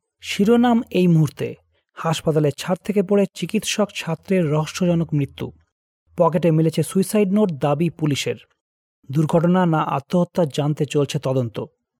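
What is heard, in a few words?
Bengali, Bangladesh, male, voice over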